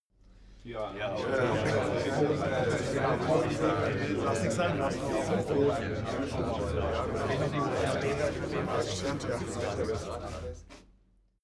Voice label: male crowd chatting calmly short